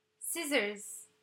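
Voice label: "Scissors", English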